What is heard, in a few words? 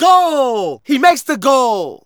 Narration